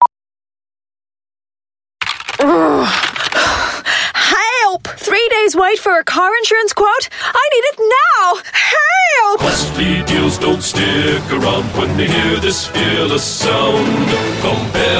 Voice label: Asian English; Compare Express